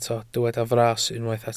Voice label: "fras", Welsh